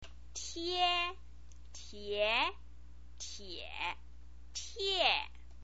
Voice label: "tie", Chinese pinyin